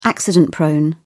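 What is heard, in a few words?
"accident-prone", British English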